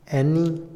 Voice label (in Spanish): medir, Otomí, hombre; INALI